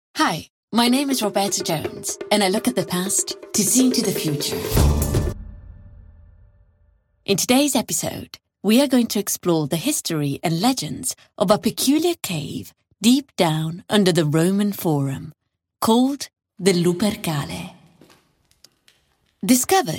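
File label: Italian, English accent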